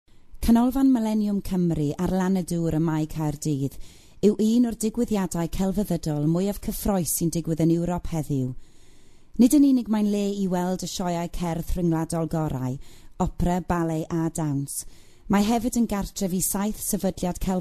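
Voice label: Welsh; Male; 20s-30s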